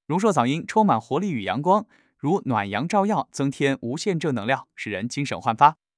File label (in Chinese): 博才干练男; 中、英; longshuo v2